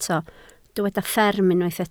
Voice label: "fferm", Welsh